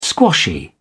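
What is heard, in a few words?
"squashy", British English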